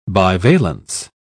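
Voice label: "bivalence", British English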